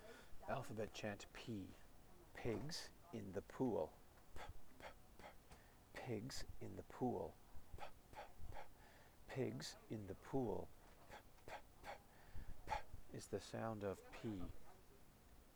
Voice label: alphabet chant 'p' slow